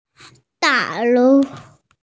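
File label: "building", Mandarin, hearing-impaired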